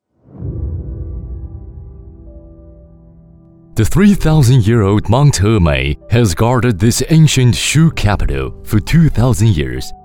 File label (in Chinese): 男英 专题 浑厚; 学校; 外语; 英语; 西南民族大学